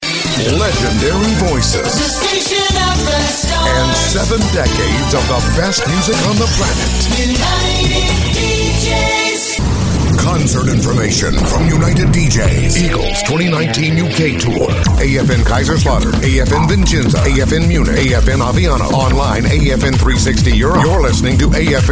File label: RADIO